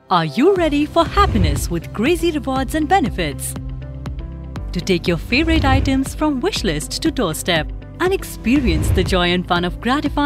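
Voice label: Explainer English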